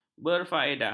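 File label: "berfaedah", Malay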